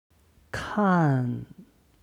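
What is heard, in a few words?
"kan", Chinese; 看; 見る; HSK Level One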